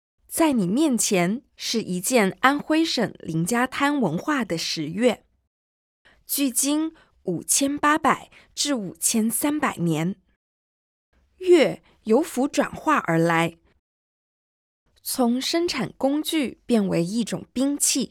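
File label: Mandarin, audio guide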